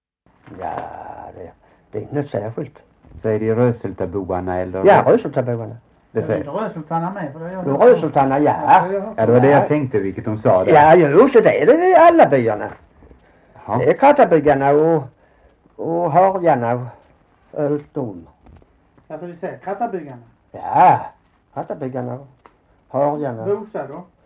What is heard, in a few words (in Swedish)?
Hörja, dialekter; Folkminnen; Svenska sagor